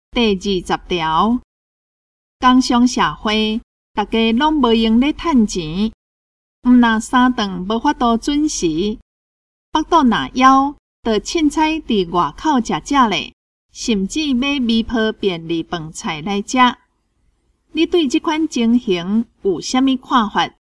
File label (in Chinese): 口語表達, 閩南語; 閩南語語言能力認證; 金安咱來考C